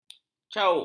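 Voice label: "cauk", Malay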